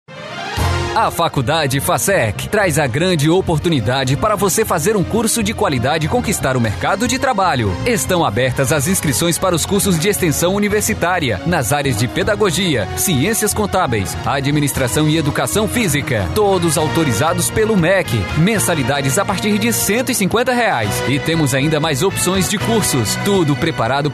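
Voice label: Brazilian Portuguese, male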